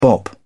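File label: "bop", British English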